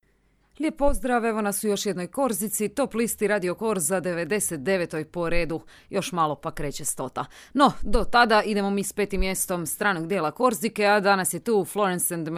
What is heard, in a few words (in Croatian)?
croatian